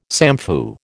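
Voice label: "samfu", American English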